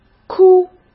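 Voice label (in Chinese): "哭", Mandarin Chinese; hsk; chinese-flashcards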